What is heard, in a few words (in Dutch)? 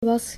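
"was", Dutch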